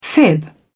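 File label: "fib", English, British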